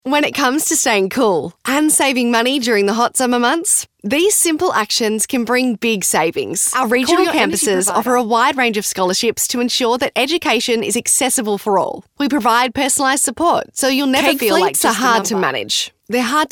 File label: Natural